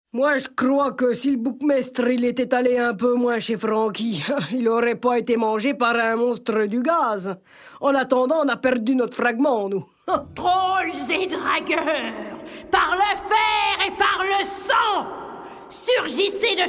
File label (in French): MEDLEY VOIX VIEILLES